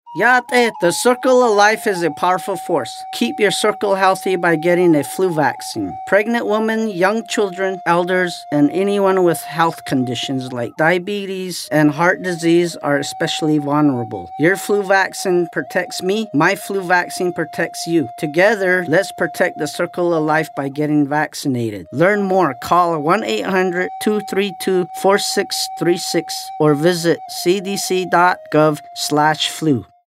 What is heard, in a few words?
navajo male; flu; psa; radio; native-and-alaskan-psas